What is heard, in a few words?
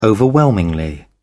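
"overwhelmingly", English, British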